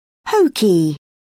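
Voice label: "hokey", British English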